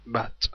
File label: patach niqqud hebrew vowels